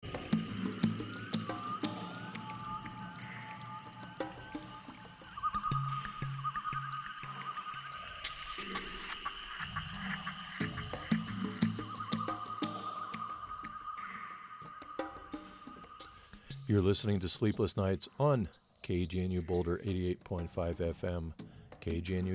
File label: poetry w buddhbomb ambience